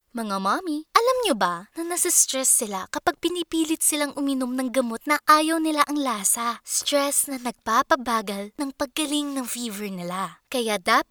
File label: Commercial Tagalog